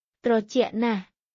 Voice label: Khmer, basic khmer cambodian everyday